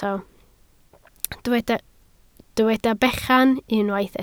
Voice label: "bechan", Welsh